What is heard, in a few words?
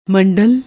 "mandal", Punjabi